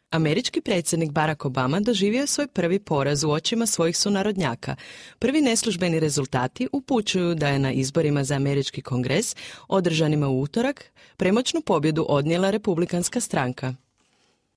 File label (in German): Kroatien W